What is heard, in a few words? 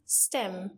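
"stem", English; grade5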